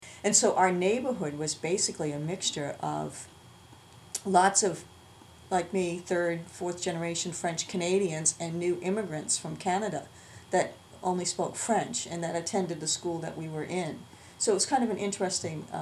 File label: boston conversation; usa; dialect samples